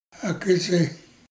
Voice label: "Ak'ize", Dane-ẕaa; Rêveurs